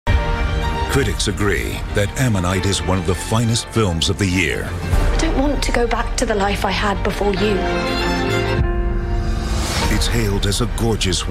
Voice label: TV Spots; ammonite

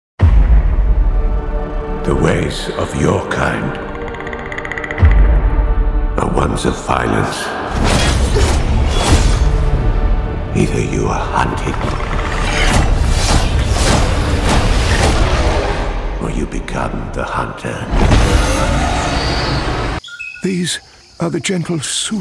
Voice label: Promo

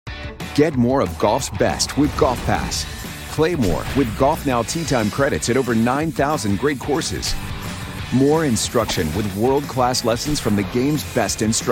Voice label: commercials; Golf Pass

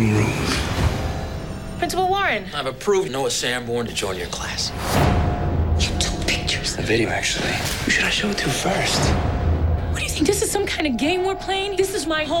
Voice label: boy next door tv

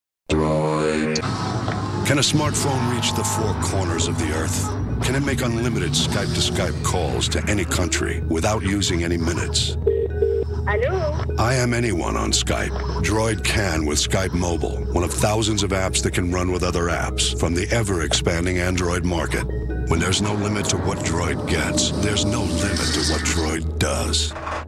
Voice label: Commercial

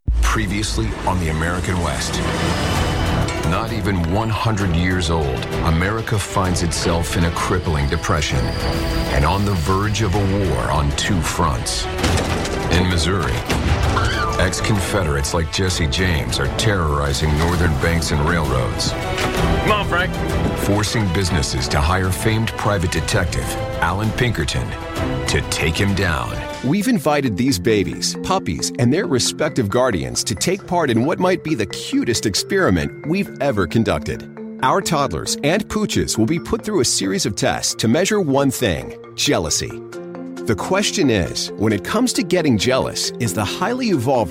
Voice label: narration

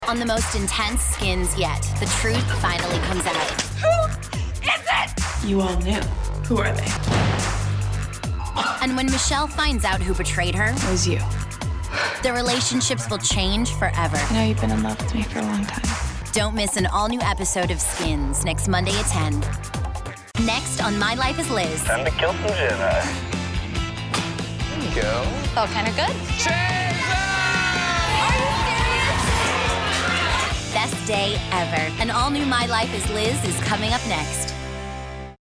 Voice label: promos

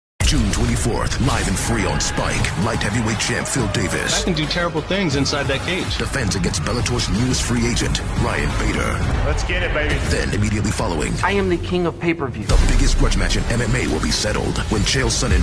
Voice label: Promos; Bellator MMA - Spike

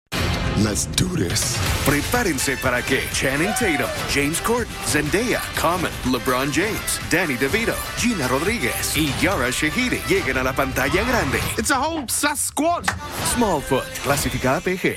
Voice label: Spanish TV Spots; smallfoot

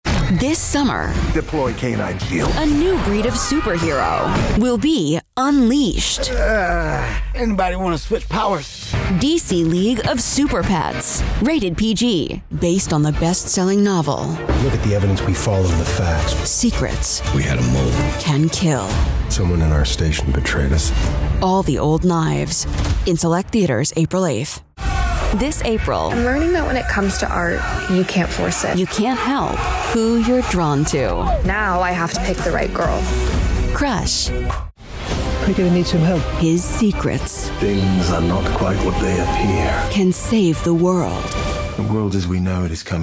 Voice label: trailer

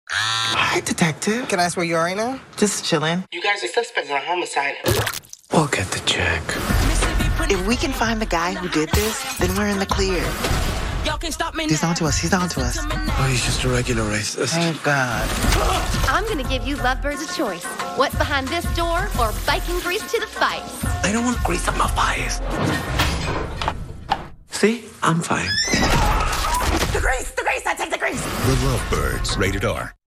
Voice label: TV Spots; lovebirds

